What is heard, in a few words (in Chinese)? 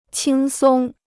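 "轻松" Mandarin Chinese; 轻松 (qīng sōng); light; gentle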